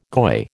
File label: "goy", American